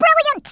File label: "EXCELLENT", Brooklyn; Speech; Worms Armageddon